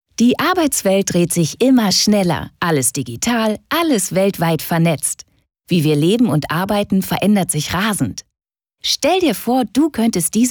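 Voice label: e-learning; sparkasse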